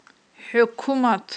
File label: "хIуькумат", Aghul